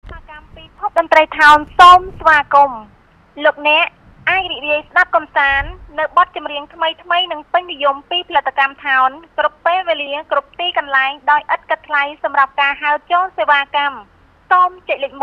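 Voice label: voice narrator sharp tone woman phone; 2019; Cambodia phnom penh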